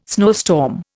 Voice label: "snowstorm", Indian